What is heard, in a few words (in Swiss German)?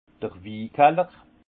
"wikaller", alsace; vin; cellier